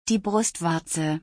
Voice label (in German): "die Brustwarze", German; body parts; Visual Dictionary